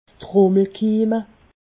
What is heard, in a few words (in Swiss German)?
"trummelkimme", alsace